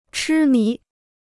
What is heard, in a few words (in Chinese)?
"痴迷", Chinese